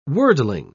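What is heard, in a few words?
"worldling", British English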